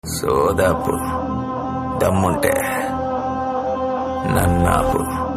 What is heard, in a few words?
Dialogue Ringtone; V Movie Teaser; Nani